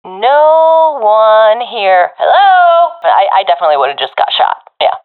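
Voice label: sees area clear, professional female; vo; Counter Strike Model Pack; CS:GO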